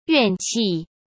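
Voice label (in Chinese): "怨气", Mandarin Chinese; chinese-flashcards; hsk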